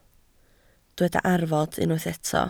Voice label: "arfod", Welsh